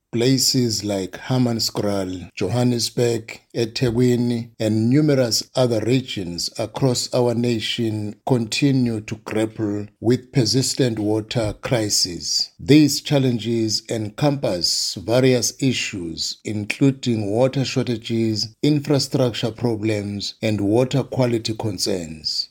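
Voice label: English; Water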